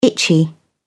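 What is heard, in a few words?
"itchy", British English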